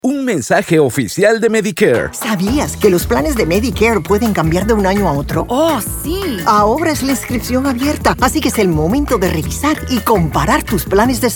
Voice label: radio ad; Open Enrollment materials for Spanish speakers